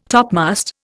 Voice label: "topmast", Indian